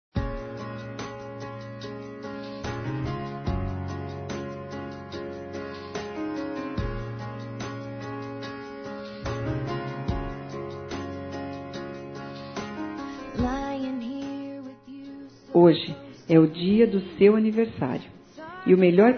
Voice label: MADRINHA PADRINHO F, Telemensagens, Aniversários, Fem; Aniv Familiar

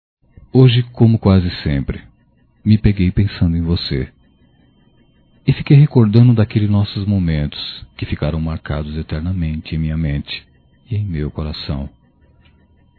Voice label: Romantica Masculino

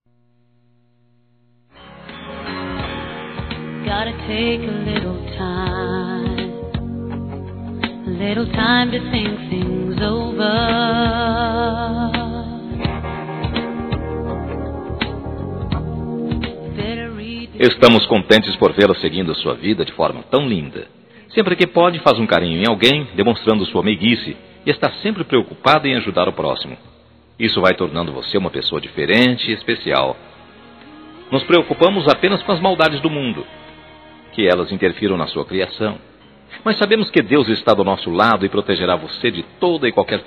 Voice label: Aniversário Neta Masculino, Telemensagens; Aniversários; Aniv Familiar

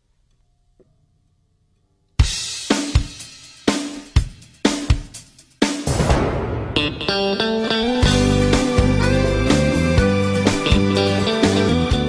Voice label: ANIV NAMORO FEM

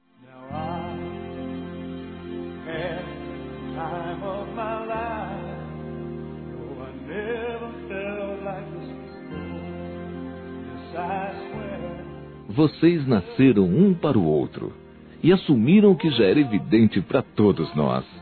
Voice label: PARABÉNS PELO NOIVADO M; Telemensagens 2017; Aniversários; Aniversário de Amigos

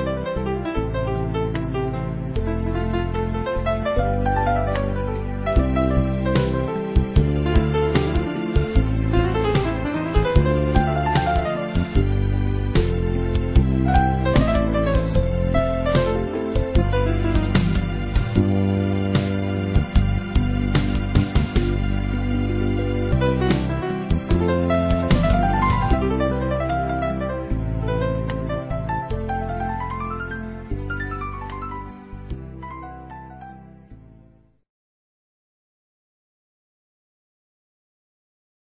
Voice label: Aniversário ChefA Masculino, Telemensagens; Empresarial; Telemensagens 2017

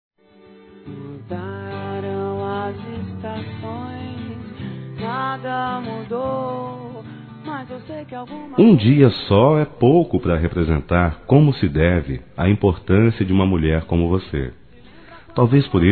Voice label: MÃE FAMILIAR M, Dia das Mães, Masc; Telemensagens 2017

